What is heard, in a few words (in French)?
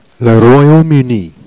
Rouoyaume Unni, Jèrriais